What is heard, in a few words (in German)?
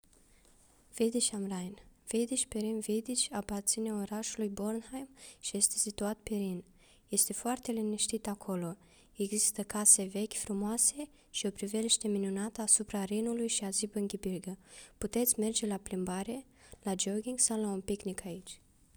Rumanisch; Widdig am Rhein